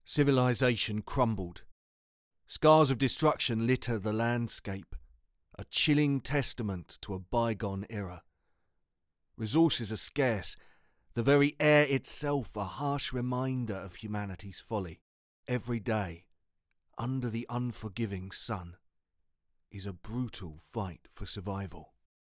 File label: English London